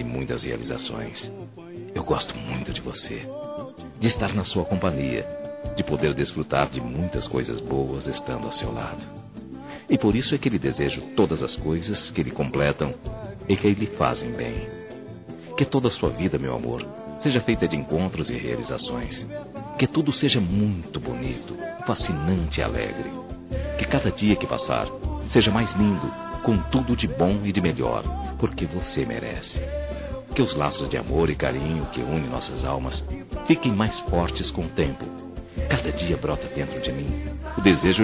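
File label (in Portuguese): Namorada Masculino; Aniversários; ANIVERSARIO romantico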